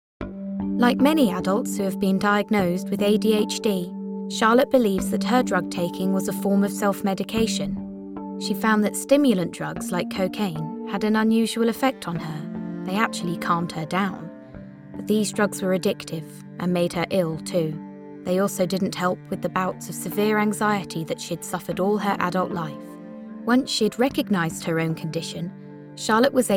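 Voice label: Documentary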